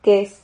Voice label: "quez", Silabas

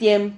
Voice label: "tiem", Silabas

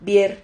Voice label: "vier", Silabas

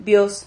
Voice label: "vios", Silabas

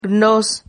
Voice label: "gnos", Silabas

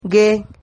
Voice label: "gue", Silabas

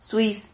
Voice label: "suis", Silabas

